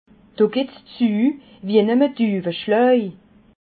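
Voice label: "duweschlag", Alsatian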